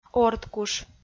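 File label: "ордкуш", Komi-Zyrian, female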